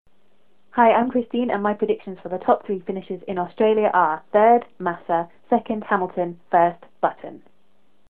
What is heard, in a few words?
voicemail example